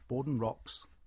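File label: "Bawden Rocks" English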